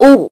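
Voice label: voice Korean, keytone; SKY IM-A770K-VEGA-Racer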